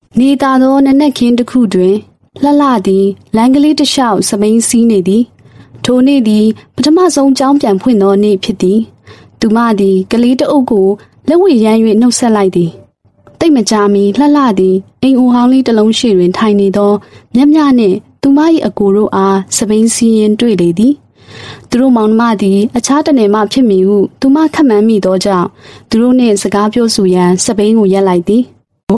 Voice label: Burmese Female Narration